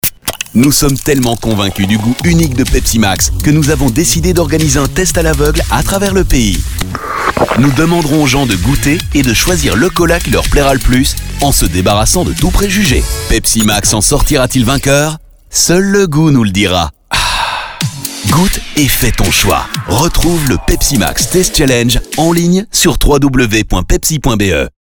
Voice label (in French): pub radio; Pepsi Challenge